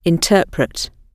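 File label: "interpret", British English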